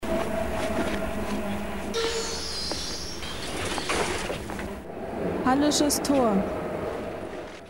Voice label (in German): ansage hallesches tor; linie1